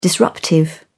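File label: "disruptive", British English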